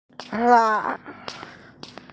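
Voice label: "la4", Mandarin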